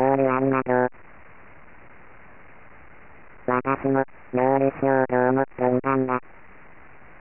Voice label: s speech robot